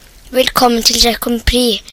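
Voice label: welcome, Brazilian Portuguese; gcompris-data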